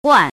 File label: "guan4", pinyin